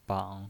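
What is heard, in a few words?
"bang", Mandarin Chinese, third tone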